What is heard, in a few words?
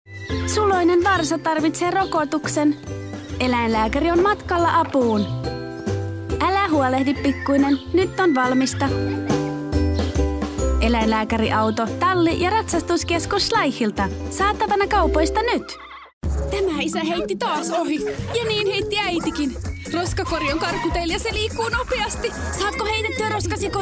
female Finnish childrens commercial compilation